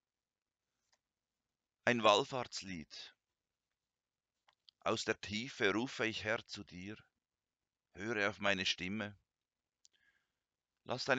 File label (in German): Lesung Aufnahme